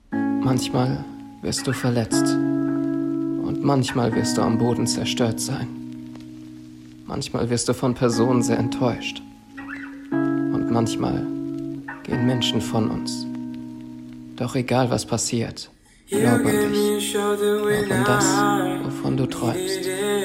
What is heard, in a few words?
Voice Over